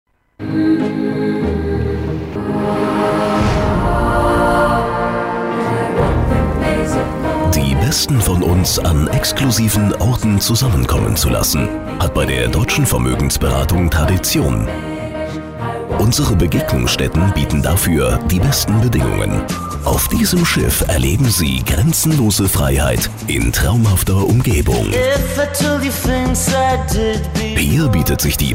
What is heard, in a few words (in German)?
Imagefilm; Deutsche Vermoegensberatung